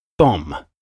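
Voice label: "bomb", English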